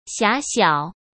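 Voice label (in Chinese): "狭小", Mandarin Chinese; hsk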